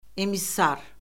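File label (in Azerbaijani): "emissar", Azerbaijani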